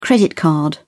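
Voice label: "credit card", British English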